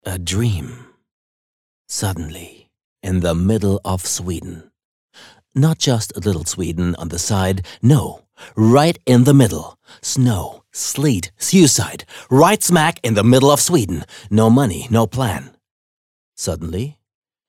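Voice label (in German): US English, Schauspiel Sanft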